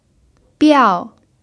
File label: "biao4", chinese